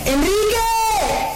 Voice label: loud